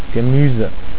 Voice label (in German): "gemuese", German